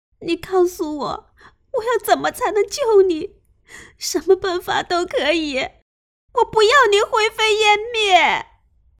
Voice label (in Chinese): 少御音